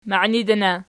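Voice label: "mainidana" nominative, Archi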